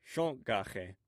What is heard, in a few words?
"shongage", Omaha